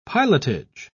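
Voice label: "pilotage", British English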